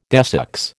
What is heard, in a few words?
"desex", American